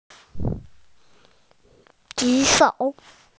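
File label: "raise hand", Mandarin, hearing-impaired; ju3shou3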